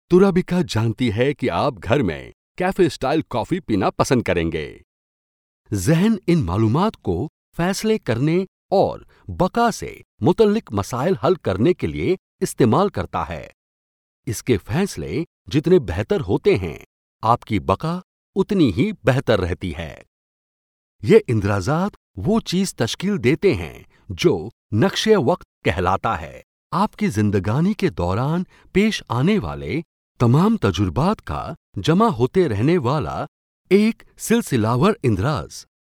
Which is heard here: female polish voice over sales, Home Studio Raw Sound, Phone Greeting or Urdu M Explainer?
Urdu M Explainer